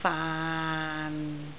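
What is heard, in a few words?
"faan6", Cantonese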